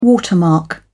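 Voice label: "watermark", British English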